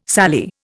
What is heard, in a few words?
"sallee", Indian